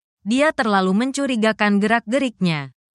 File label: indonesia, indonesian negative phrases